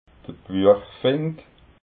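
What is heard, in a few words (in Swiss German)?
"buechfink", Alsatian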